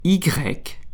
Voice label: French alphabet y